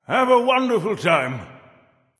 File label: vox emote f; smite; chronos